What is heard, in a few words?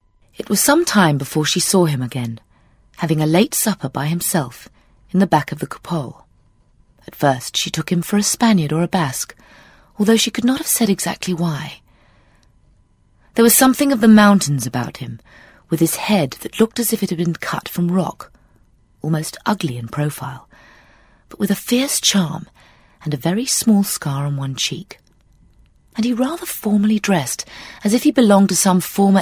Narration; Vocal Point